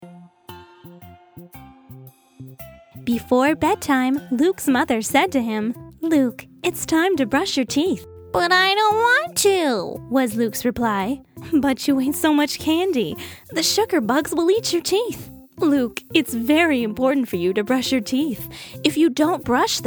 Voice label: Childrens Book, Narration; princess voice over actress; Teeth; FEATURED PRINCESS VOICE; new york city